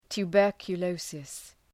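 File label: "tuberculosis", English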